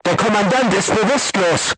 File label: voice message commander contused, crew tanks german